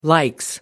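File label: "likes", British English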